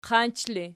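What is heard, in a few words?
"qanch" ergative, Archi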